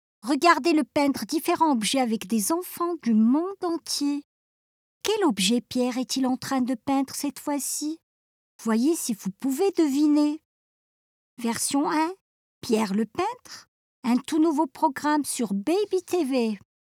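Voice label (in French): French, female, character; voice demos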